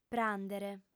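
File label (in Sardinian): "pràndere", female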